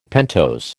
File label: "pentose", American